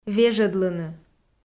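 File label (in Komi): "вежӧдлыны", Komi, female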